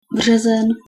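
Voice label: "březen", Czech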